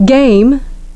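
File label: "game", English pronunciation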